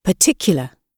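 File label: "particular", British English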